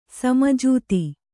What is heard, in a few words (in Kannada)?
"ಸಮಜಾತಿ", Kannada